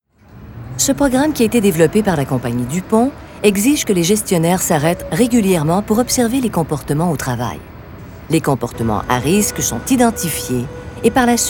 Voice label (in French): Voix Narration